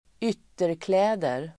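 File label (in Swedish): "ytterkläder", Swedish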